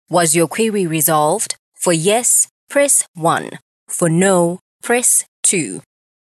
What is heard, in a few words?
Survey IVR; IVR (Interactive Voice Response) or Auto-Attendant message